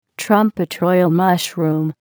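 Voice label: "trumpet royale mushroom", English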